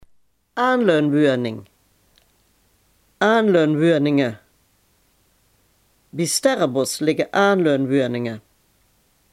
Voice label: "aanleunwoning", Limburg

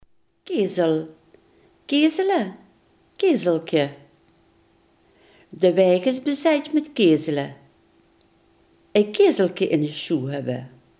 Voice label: "kiezel", Limburgs

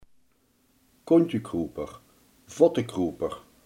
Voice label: "slijmer", Limburgs

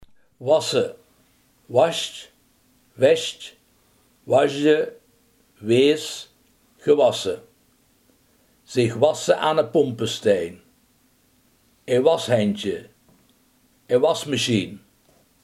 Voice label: "wassen", limburgse

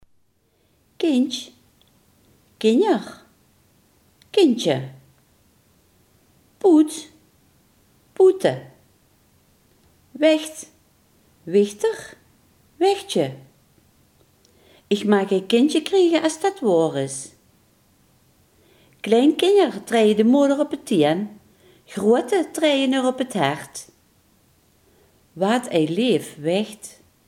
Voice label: "kind", Limburgs

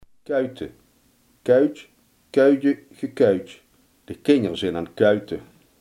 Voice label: "stoeien", Limburgs

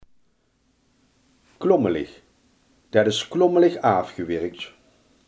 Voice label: "slordig", Limburgs

